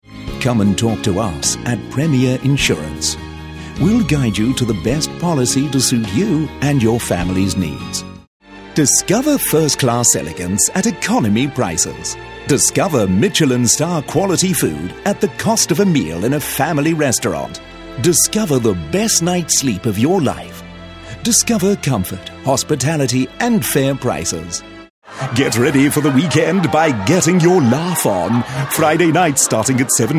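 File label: British English, TV commercial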